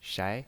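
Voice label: "shai", Mandarin Chinese pinyin, second tone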